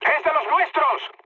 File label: player friendly fire, mood high, speech; War Thunder